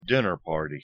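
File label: "dinner party", English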